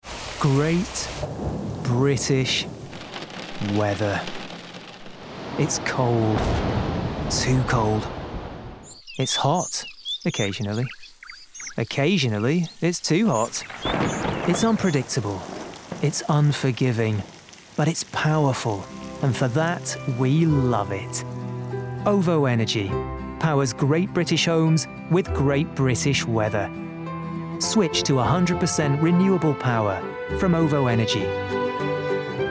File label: Neutral; Ovo Energy